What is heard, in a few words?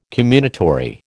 "comminatory", American